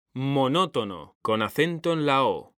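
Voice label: "monótono", Spanish, male; mekanta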